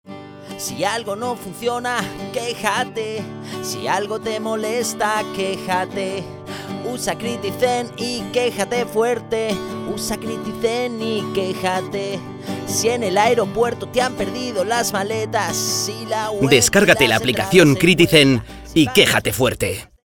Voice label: RADIO; que como critican los espanoles